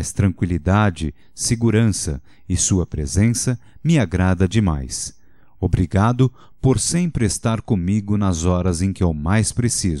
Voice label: Amizade Para Amigo Agradecimento Masculino, Telemensagens

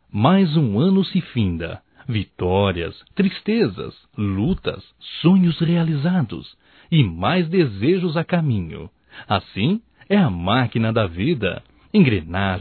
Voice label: ANO NOVO NOS DESEJAMOS, masc; Natal e ano novo; Telemensagens 2017

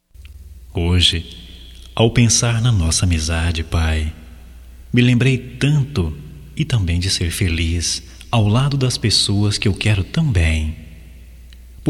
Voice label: HOMENAGEM AO PAI, Telemensagens, masc

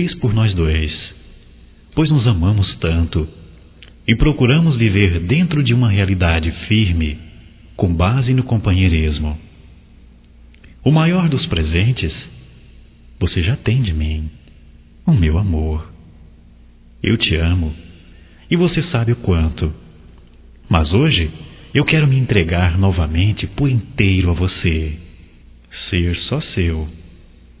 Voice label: Aniv romântico para noiva; Telemensagens 2017; Aniversarios

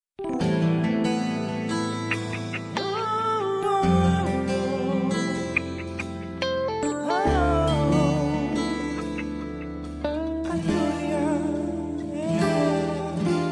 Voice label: OTIMISMO EVANGÉLICO FEM; FELICITAÇOES

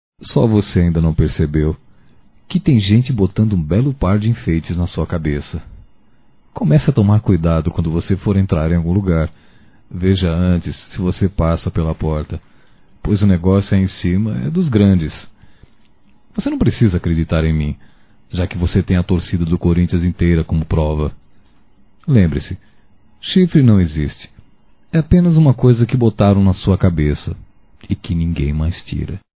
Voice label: Tem Alguem te chifrando Masculino; Telemensagens 2017

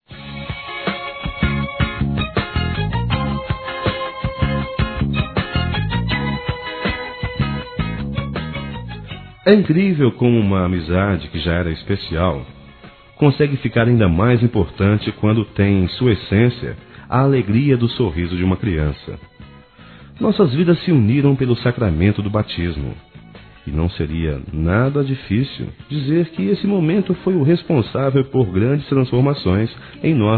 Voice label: Aniversário Comadre OU Compadre Masculino

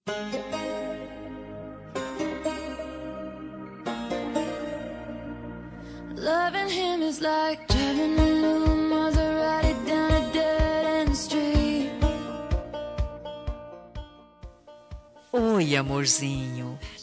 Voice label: ANIV. SOBRINHO CRIANÇA F

